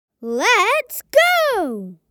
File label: Lets go boy child kid